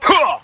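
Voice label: "huh", vocal sample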